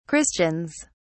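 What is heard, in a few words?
"christians", British English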